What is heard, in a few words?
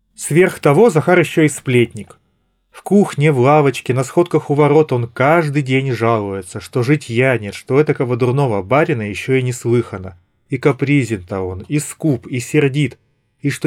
Recorder; Запись на профессиональный рекордер; Honor Choice Earbuds Clip